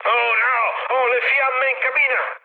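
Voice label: Italian on fire mood med, speech; War Thunder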